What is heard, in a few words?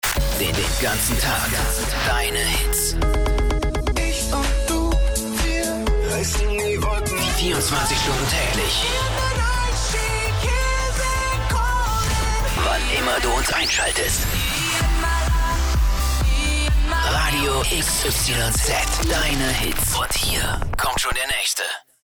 Station Voice Hookpromo; LOFT VOICES